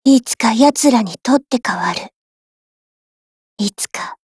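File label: win, Japanese; HK416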